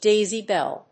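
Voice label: "Daisy Bell", English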